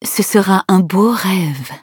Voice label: levelup, fr; Cookie Run